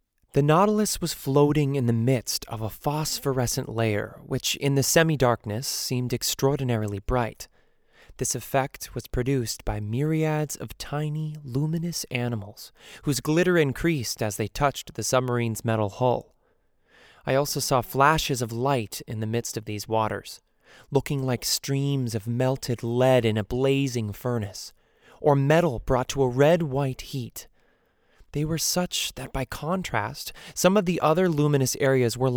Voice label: Narration Voiceover